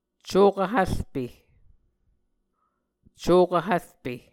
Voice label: "cokv hvrpe", Muscogee

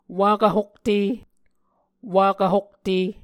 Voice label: "wakv hokte", Muscogee

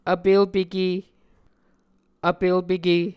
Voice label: "vpelpeke", muscogee

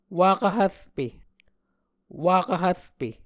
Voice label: "wakv hvrpe", Muscogee

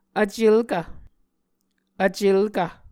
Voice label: "vcelkv", Muscogee

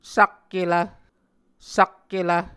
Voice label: "sakkelv", Muscogee; A Dictionary of Creek/Muskogee; SIL International

